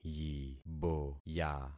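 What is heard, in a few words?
"yiboya", concatenated syllables; Affixing experiment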